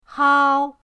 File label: "hao1", pinyin